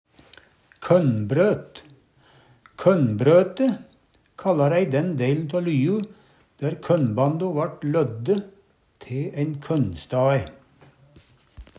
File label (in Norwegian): "kønnbrøt", Numedalsmål